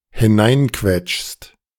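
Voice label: "hineinquetschst", German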